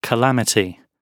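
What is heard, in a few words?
"calamity", British English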